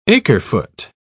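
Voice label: "acre-foot", British English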